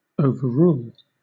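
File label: "overrule", British English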